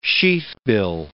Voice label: "sheathbill", words

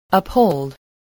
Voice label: "uphold", English

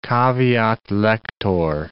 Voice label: "caveat lector", English

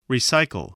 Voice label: "recycle", spelling voice

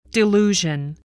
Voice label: "delusion", English

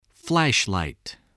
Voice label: "flashlight", spelling

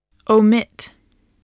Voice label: "omit", voice, spelling

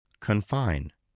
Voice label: "confine", english

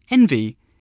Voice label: "envy", voice, english

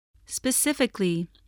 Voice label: "specifically", English voice

